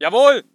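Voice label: voice german infantry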